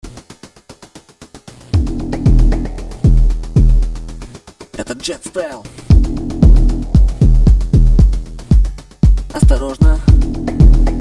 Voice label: RusRap Battle